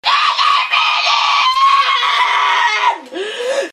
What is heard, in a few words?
angry german kid says diabetes